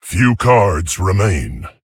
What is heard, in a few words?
Male Tauren Low Cards